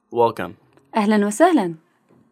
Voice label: Welcome, ah-len wa-sahlen, Iraqi; come on in); أهلا وسهلا